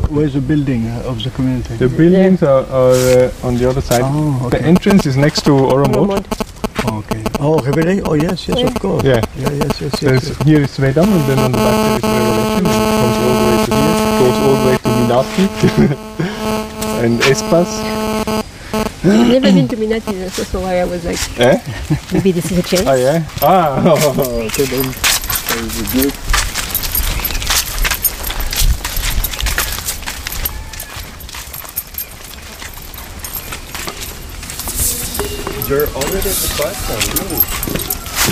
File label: presentation bliss forest walk, english